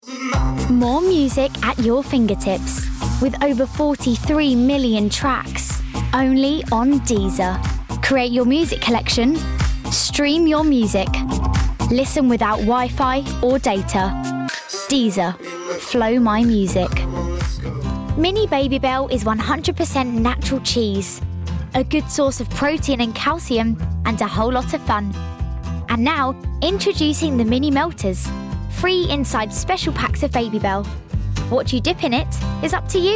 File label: Commercial